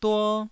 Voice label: "duo1", Taiwanese

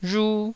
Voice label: "rhu1", taiwanese

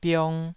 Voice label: "biong2", taiwanese syllables

